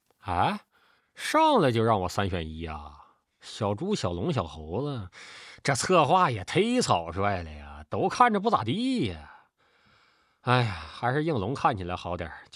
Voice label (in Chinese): 解说东北话; 小马战灵培养